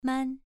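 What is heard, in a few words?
"man", Mandarin Chinese syllable, second tone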